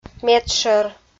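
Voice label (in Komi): "медшӧр", Komi, female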